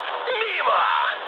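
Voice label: Russian bomb failure mood high, speech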